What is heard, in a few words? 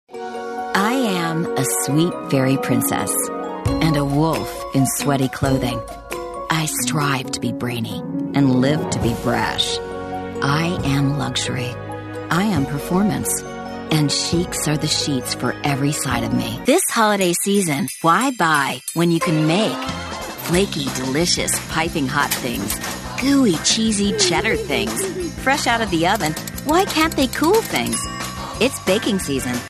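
US English, female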